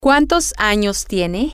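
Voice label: how old are you, spanish, female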